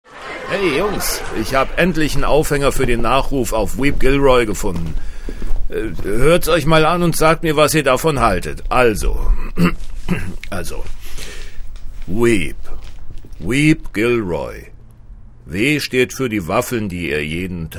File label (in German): Sprechprobe Synchron Comedy